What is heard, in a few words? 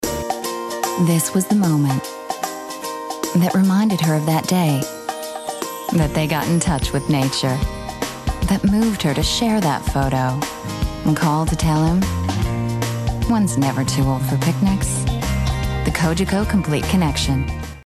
Commercial; Cogeco